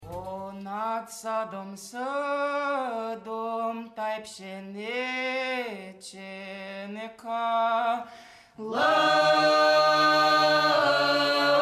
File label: ukrainian village voices; hromadska hvylya; hromadske radio